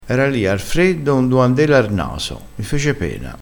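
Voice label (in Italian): "candela", vocabolario dialettale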